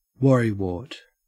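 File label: "worrywart", Australian English; pronunciations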